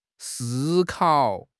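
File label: "si1 kao3", Chinese; 汉语口语新时代-初级下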